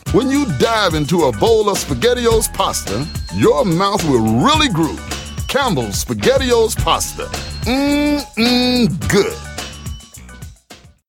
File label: SPAGETTI O PASTA COMMERCIAL